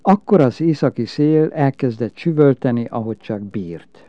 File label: narrative, hungarian